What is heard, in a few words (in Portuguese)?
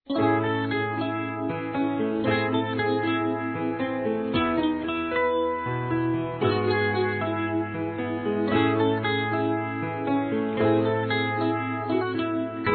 ANIV. SOBRINHA F; Aniversários; Aniversários diversos; fem